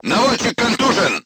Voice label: voice message gunlayer contused, crew tanks russian